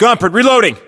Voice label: US inform reloading, battlechatter, english

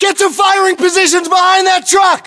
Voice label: order cover truck, US

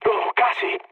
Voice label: bomb failure, mood low, speech; War Thunder

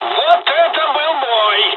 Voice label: dialogs russian; War Thunder

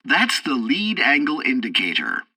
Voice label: tutorial fighter fight, english; War Thunder

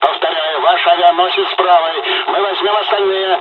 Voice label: dialog, dialogs russian; us midway; War Thunder

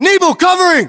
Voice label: response covering, battlechatter us; localized english

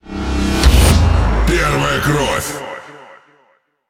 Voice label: Ru Speech First Kill; World Of Warships

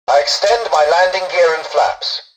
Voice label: tutorial dialogue, english; War Thunder

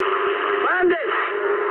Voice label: eng enemy detected mood med, speech eng; War Thunder

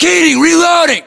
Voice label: inform reloading, US; localized english; battlechatter

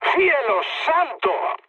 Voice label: trick, mood med, speech; War Thunder

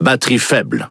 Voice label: Speech Alarms Sub Battery Low, FR; World Of Warships

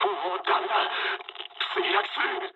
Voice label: aircraft destroyed mood low, speech jp

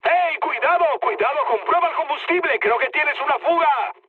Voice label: tank damaged, mood high, speech; War Thunder

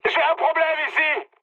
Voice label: aircraft damaged mood high, speech fr

